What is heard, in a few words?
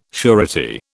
"surety", British